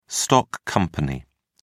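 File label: "stock company", English, British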